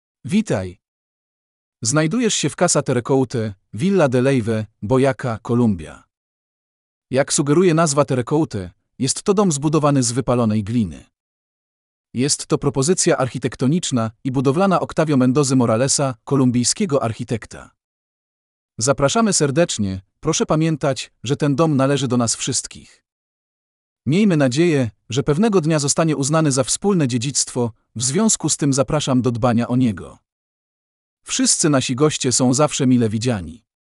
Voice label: Polish